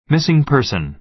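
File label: "missing person", American English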